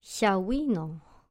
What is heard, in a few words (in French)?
jeune femme